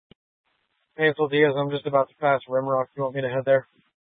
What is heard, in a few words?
Police Dispatch